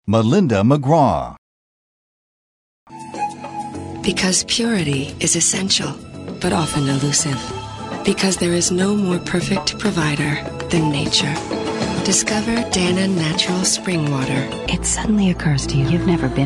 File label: commercial; Innovative LA